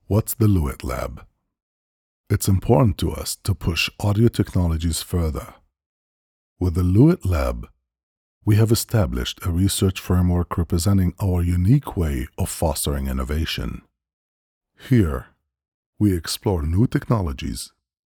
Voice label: male vo, pure tube